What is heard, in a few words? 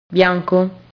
"bianco", Italian